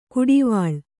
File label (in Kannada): "ಕುಡಿವಾಳ್", kannada